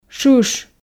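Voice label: "шыш", Komi, female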